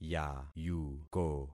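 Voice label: "yayuko", concatenated syllables; Affixing experiment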